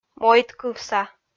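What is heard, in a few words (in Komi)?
"мойдкывса", Komi, female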